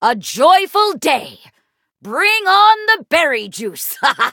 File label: levelup, en; cookie run